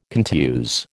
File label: "contuse", American